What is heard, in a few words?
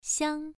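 "xiang" Mandarin Chinese, first tone, pronunciation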